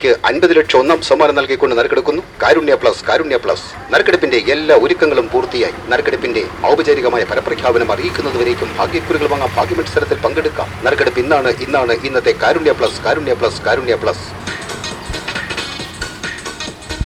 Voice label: voices lotto radio, South India street recordings